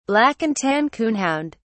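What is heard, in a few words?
"black-and-tan coonhound", British English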